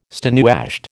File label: "stonewashed", American